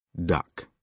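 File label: "duck", British English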